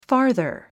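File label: "farther", English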